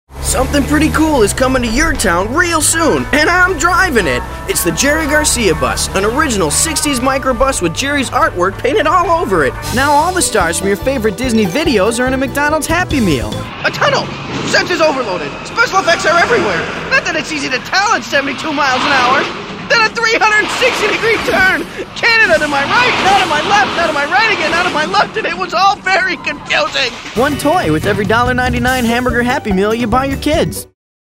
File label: Upbeat